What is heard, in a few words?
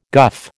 "guff", American